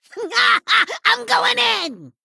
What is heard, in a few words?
Male Gnome Attack